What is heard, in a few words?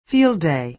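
"field day", American English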